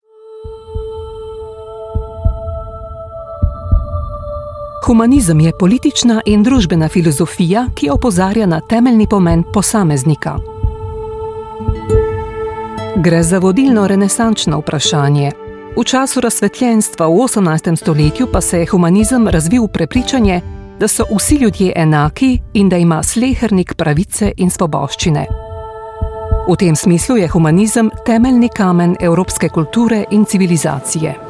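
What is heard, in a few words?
Slovenian, General; GoLocalise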